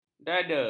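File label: "dada", Malay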